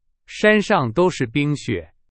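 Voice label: Chinese; hsk4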